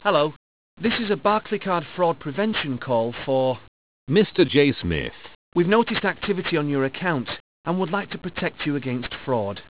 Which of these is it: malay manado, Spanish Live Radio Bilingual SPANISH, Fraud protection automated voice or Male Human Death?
Fraud protection automated voice